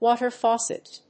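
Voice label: "water faucet", English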